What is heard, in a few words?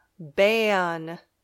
"ban", English